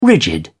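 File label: "rigid", British English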